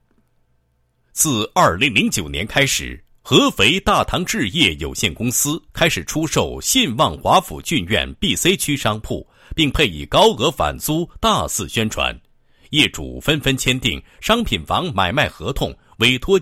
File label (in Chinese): 男 汇报 商铺情况汇报; 信旺华府骏苑商铺情况汇报